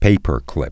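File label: "paperclip", English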